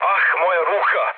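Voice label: Serbian damaged pilot mood med, speech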